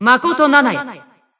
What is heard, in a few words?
System Voice; BBCP; Bullet